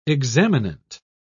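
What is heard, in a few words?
"examinant", British English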